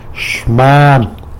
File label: "xman", tlacolula; week